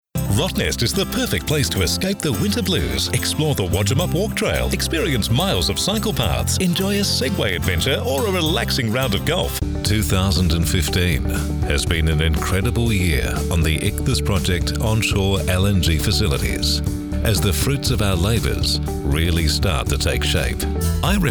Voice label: Voice Over; Voice Demo; Location Perth